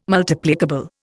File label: "Multiplicable", Indian